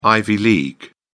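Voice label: "ivy league", British English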